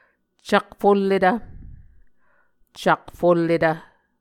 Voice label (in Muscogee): "cakfulletv", Muscogee